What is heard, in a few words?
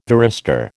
"varistor", American